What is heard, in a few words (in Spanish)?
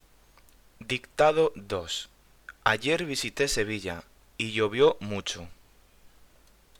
Dictado